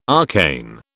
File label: "arcane", British